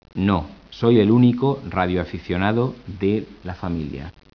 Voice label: SPANISH; speech